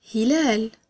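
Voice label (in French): "croissant", French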